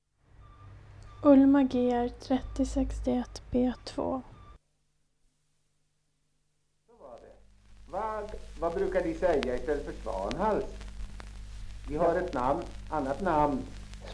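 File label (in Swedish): Heda Östergötland, dialekter; Folkminnen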